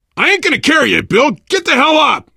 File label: revive friend loud, player survivor voice biker